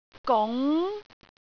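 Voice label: "gung2", Cantonese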